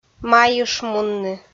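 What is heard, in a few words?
"майышмунны", Komi, female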